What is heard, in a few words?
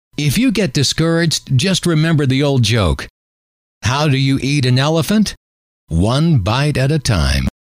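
if you get discouraged, male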